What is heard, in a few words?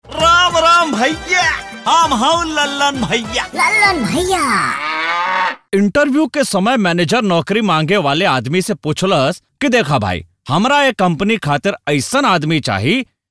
Bhojpuri Jokes